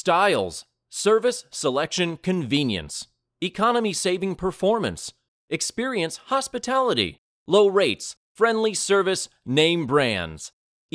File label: voiceover advertising lullaby